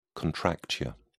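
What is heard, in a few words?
"contracture", British English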